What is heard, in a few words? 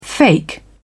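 "fake", British English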